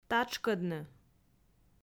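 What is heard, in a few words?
"татшкӧдны", Komi, female; audio dict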